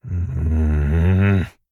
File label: Thinking